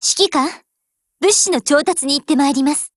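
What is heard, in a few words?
OPERATION BEGIN, Japanese; GF Alarm; AR15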